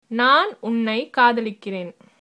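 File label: I love you, Tamil, female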